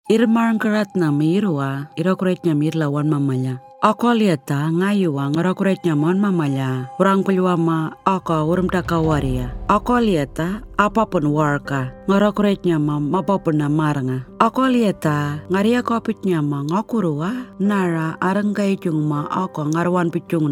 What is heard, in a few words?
Carer Gateway Anindilyakwa; radio